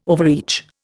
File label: "overreach", Indian